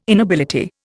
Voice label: "inability", Indian